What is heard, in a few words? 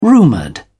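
"rumoured", English, British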